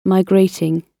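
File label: "migrating", British English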